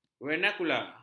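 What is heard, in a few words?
"vernakular", Malay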